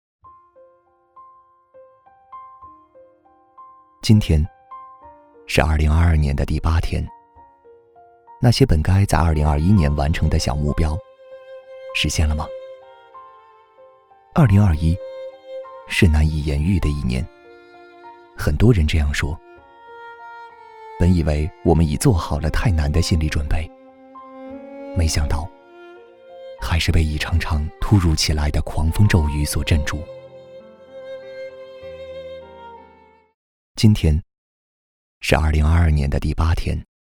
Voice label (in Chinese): 男 年轻温暖